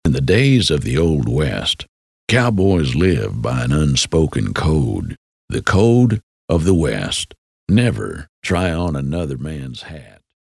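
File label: English US, male, narration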